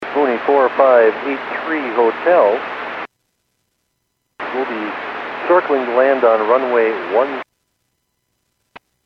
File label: Airports 122.7, Radio; KSTE